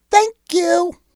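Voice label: "thank you", English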